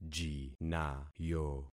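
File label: "jinayo", concatenated syllables; Affixing experiment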